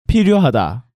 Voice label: "Piryohada", Korean

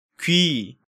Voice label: "gui", Korean

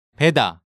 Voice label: "Beda", Korean

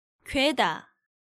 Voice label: "gueda", Korean

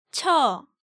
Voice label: "Chyeo", Korean

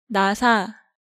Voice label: "Nasa", Korean

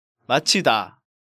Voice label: "Machida", Korean

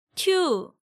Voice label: "tyu", Korean

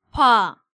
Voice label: "Bwa", Korean

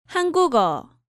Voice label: "Hangugeo", Korean

